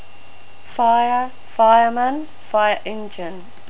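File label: "fire", New Zealand English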